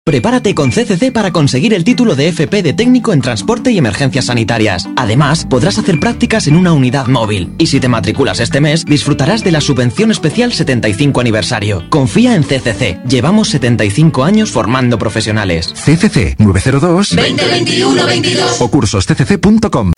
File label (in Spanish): MALE Spanish Promocional Comercial; CURSOS CCC